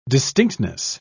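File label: "distinctness", British English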